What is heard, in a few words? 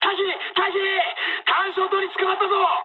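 searchlite mood med, speech japanese; War Thunder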